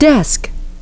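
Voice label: "desk", English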